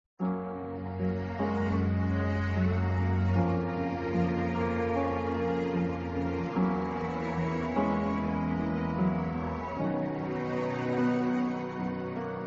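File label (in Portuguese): recon masc, Telemensagens; Telemensagem de Reconciliação – Voz Masculina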